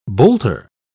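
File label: "boulter", British English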